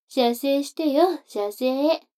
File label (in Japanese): 女の子 台詞